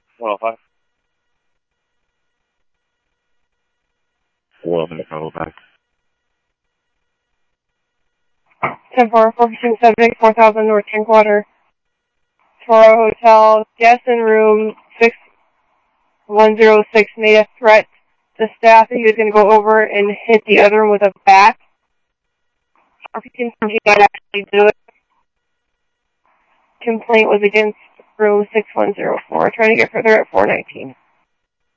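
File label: police radio dispatch, ScottsdalePolice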